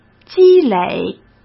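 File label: "积累", Mandarin Chinese; chinese flashcards; hsk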